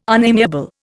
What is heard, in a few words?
"unamiable", Indian